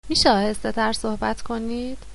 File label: slowly, Persian